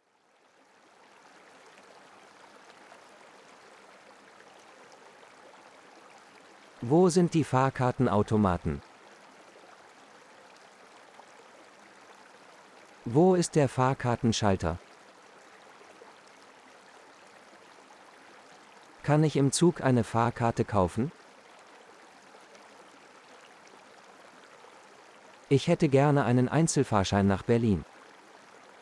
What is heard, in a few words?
Relax Water Phrases, de-en; At the Train Station